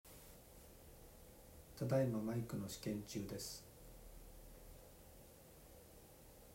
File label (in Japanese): iPadPro内蔵